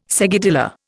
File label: "seguidilla", Indian